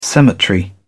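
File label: "cemetery", British English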